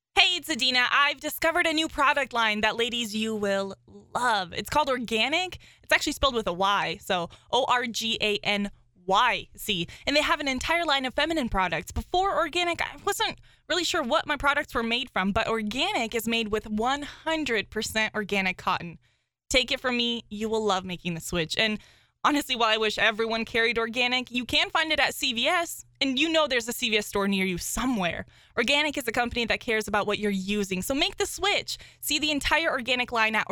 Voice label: Aircheck; Edina Organycs; Morton In The Morning